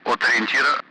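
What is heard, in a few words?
Speech Sound Russian Common Allied Flight; DCS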